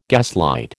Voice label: "gaslight", American